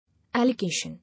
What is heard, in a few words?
"Allocation", English